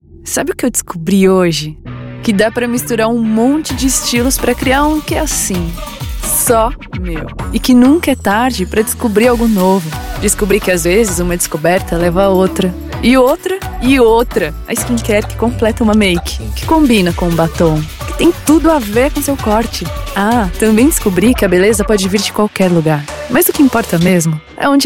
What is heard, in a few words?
portuguese brazilian, brazilian portuguese voice actors